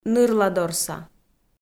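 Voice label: "нырладорса", Komi, female; audio dict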